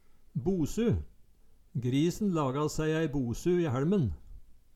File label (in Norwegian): bosu, Numedalsmål; Grisen laga sæi ei bosu i hæLmen